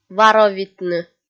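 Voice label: "варовитны", Komi, female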